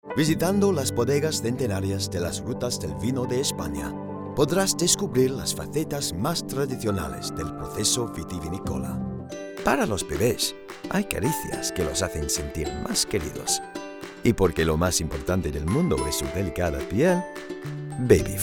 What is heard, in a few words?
Spanish Mixed, spanish iberian